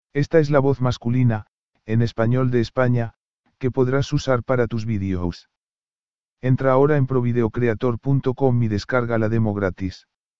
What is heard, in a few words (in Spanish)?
voz tono hombre